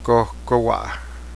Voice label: quail, Cheyenne